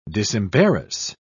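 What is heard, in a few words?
"disembarrass", British English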